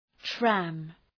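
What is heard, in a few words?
"tram", English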